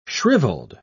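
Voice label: "shrivelled", British English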